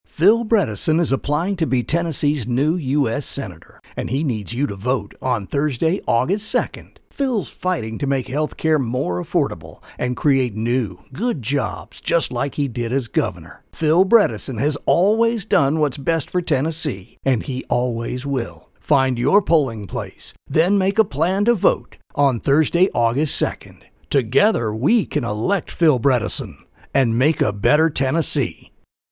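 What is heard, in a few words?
U.S. Senate Campaign Ad, male voice; Political Spots; Bredesen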